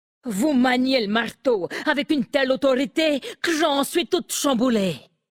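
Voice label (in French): French Femme Flirt; Marteau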